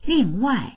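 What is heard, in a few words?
"ling4wai4", Mandarin Chinese; 另外; 別の、ほかの、加えて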